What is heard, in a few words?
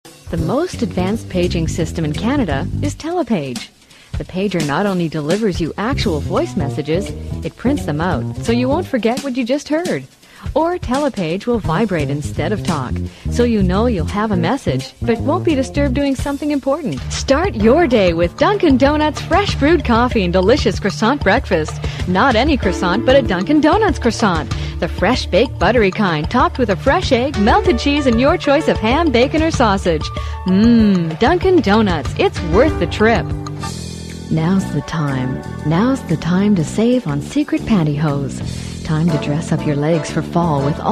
smart, female voices for radio commercials